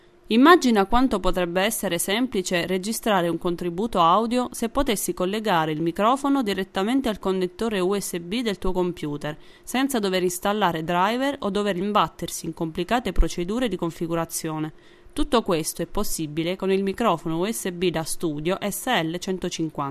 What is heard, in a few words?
Vocal Booth